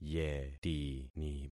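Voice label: "yetini", concatenated syllables; Affixing experiment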